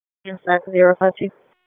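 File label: Police Northeast District Dispatch, p25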